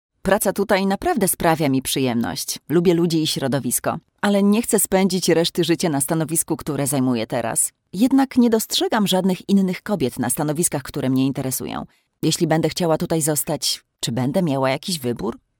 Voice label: female polish voice over narrative